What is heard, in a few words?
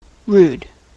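"rude", US English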